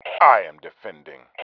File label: i am defending, male